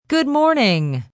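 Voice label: News Good Morning F, Broadcast, announcer, greetings, female; greetings/wrap-ups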